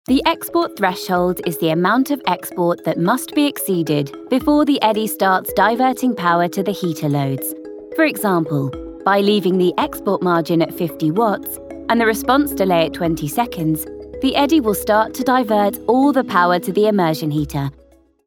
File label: english british explainer videos; voice actors